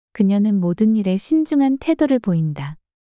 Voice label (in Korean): 그녀는 모든 일에 신중한 태도를 보인다 문장 여자